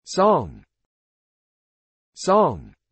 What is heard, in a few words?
"song", English